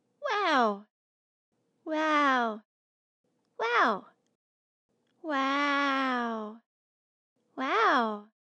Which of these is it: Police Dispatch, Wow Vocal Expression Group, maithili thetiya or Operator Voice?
Wow Vocal Expression Group